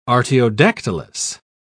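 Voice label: "artiodactylous", English, British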